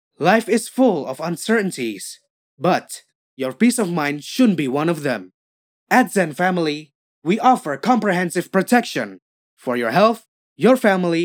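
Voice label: FORMAL English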